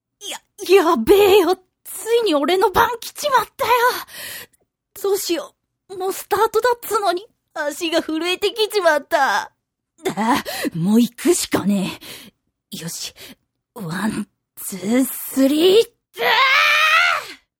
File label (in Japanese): syonen